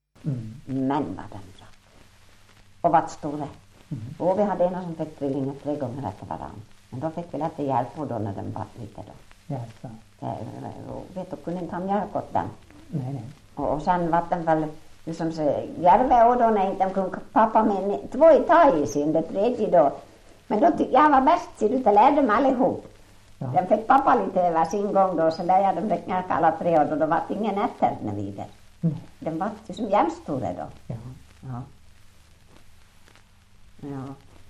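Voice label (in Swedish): Ål Dalarna, dialekter; Folkminnen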